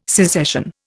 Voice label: "secession", Indian

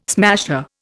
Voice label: "smasher", Indian

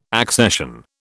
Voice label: "accession", British

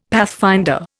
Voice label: "pathfinder", Indian; Dictionary

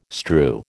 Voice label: "strew", American

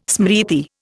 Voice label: "smriti", Indian

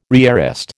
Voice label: "rearrest", American

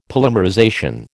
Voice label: "polymerization", American

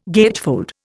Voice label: "gatefold", Indian

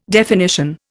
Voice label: "definition", Indian

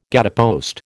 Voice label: "gatepost", American

